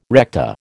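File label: "recta", American English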